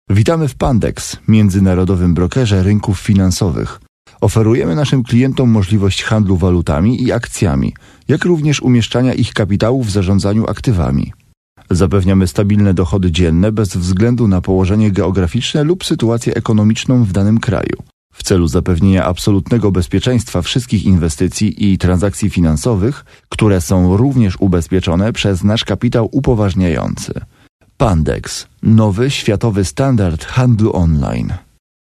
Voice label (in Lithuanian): VYR Lenku balsas, vyras